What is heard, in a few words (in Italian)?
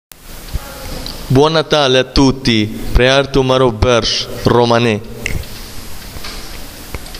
romani